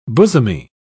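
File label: "bosomy", British English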